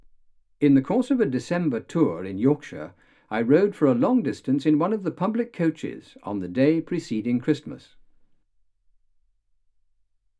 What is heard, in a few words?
male speech english